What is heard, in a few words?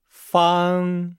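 "fang", Chinese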